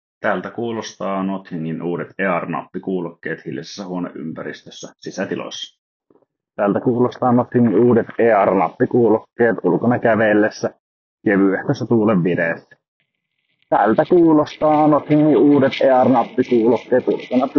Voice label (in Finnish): Nothing Ear mikrofoniesimerkki; nappikuulokkeet